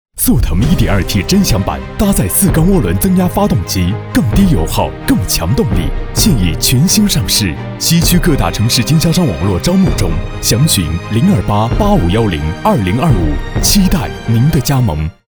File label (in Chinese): 电台浑厚汽车广告; 速腾